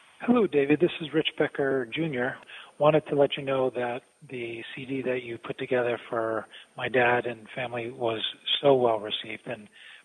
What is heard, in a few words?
Testimonial